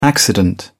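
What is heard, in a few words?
"accident", British English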